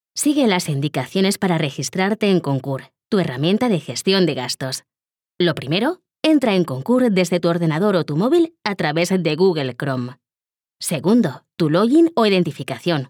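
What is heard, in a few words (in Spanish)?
Demo e-learning, Locutora profesional Online en español / Female VoiceOver; Locutora especializada en vídeos corporativos, e-learning y audiolibros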